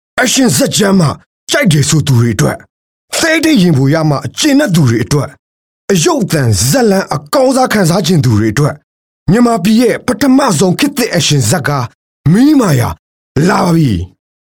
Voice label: m Burmese Movie Trailer

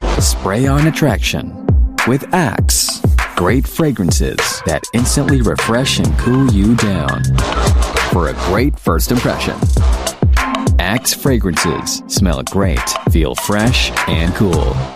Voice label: Asian English; Axe Fragrances

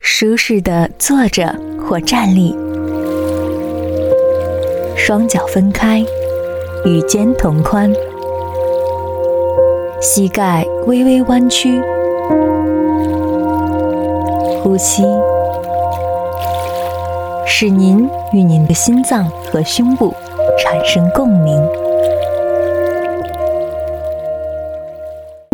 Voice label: Mandarin China Narration Yoga; GROKKER

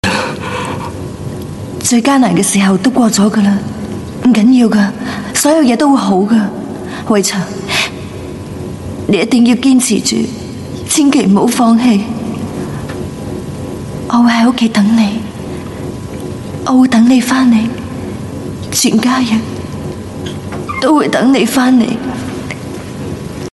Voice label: Cantonese F

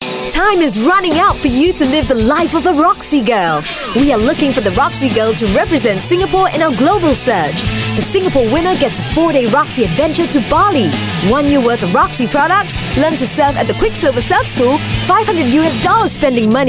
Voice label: Fun, English Singaporean Female; RoxygalSearch

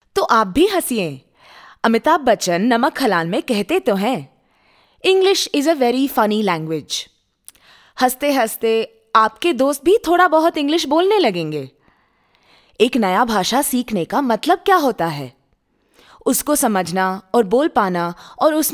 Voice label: Indian Hindi, Female

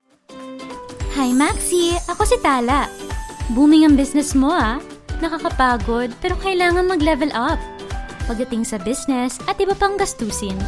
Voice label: Filipino; MoneyMax

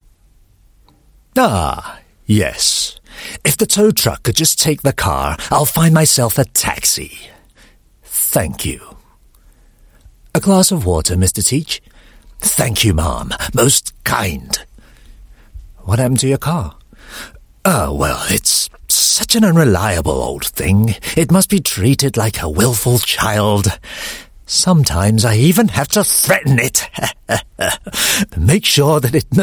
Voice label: UK English, Male; OKTO Captain Teach

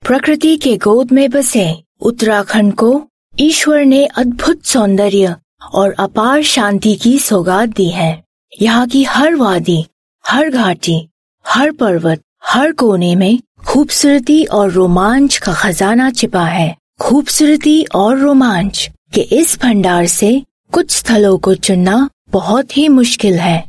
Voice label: Indian Documentary Hindi, Female

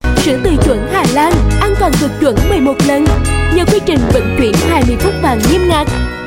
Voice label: South TVC Female, Vietnamese; Sữa Tươi; Hà Lan

